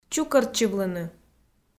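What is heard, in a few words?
"чукӧртчывлыны", Komi, female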